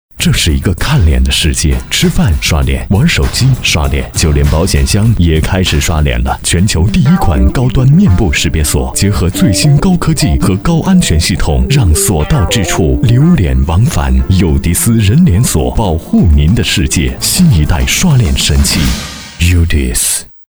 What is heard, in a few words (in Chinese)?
广告 刷脸神器